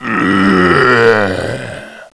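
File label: death zm, zombie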